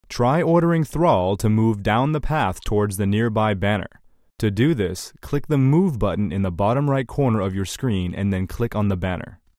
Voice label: Generic Narrator Voice; War3